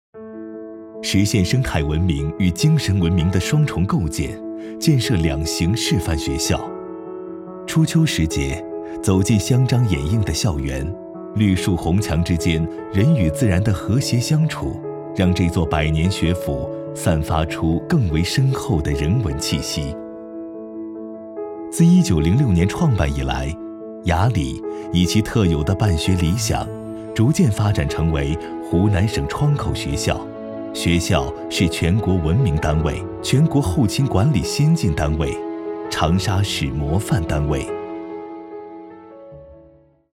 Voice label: 男, 宣传片; 学校-项目申报

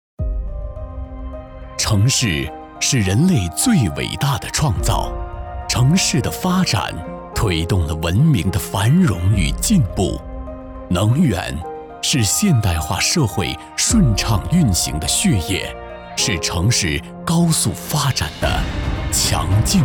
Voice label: 粤男 宣传片 城市

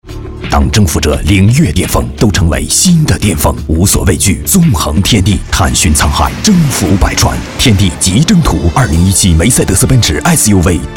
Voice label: 男 广告 科技时尚; 梅赛德斯奔驰

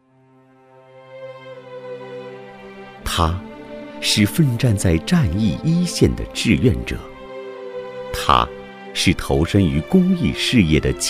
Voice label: 男 走心讲述; 军休干部

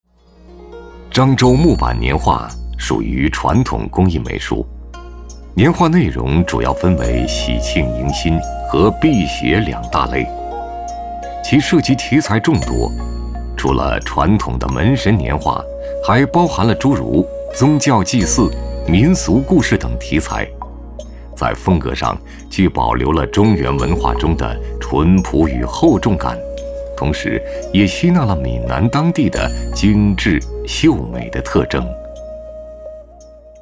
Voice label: 男 宣传片 非遗介绍

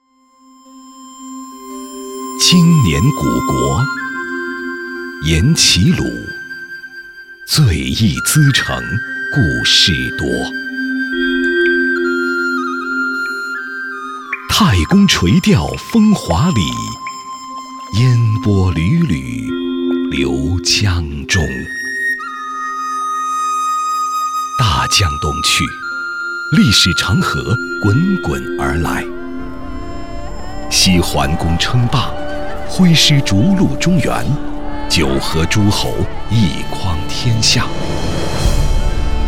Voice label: 男 宣传片 国风历史感宣传片 城市人文推介片

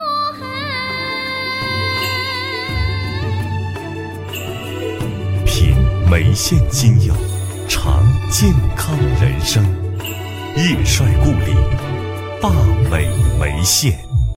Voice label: 男 TVC; 湖南卫视

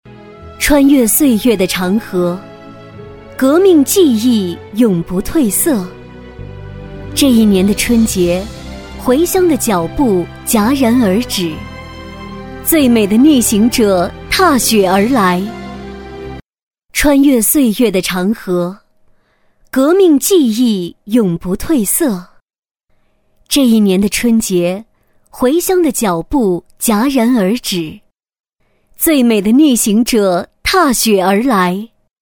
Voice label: 女 朗诵

